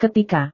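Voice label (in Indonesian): "ketika", Indonesian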